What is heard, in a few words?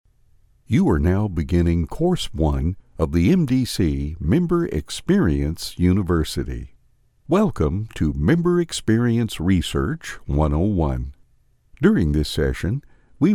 E-LEARNING